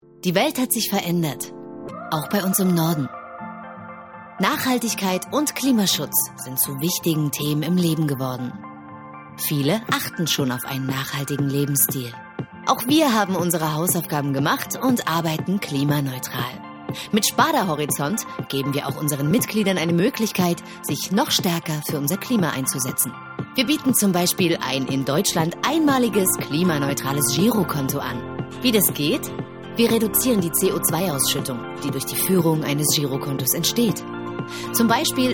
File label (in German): Imagefilm; Spardabank